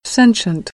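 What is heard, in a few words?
"sentient", American English; AmericanBritish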